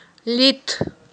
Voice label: "лит", Aghul

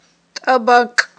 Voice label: "тIабакI", Aghul

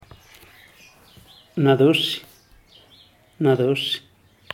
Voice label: na duxih rabioso

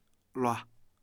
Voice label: "lungs", kham

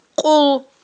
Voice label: "кьул", Aghul

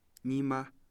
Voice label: "old", Kham

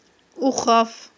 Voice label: "ухаф", Aghul

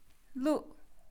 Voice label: "lightning", Kham

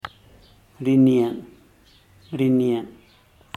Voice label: "b ri niee", zapoteco teotitlan; dice

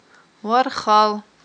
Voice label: "вархал", Aghul

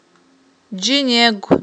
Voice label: "джинегв", Aghul

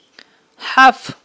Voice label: "хIаф", aghul

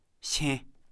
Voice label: "fart", Kham

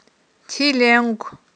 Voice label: "тиленгв", Aghul

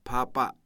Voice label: "pig leather", Kham

